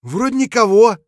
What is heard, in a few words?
clear, voice; Escape from Tarkov; scav6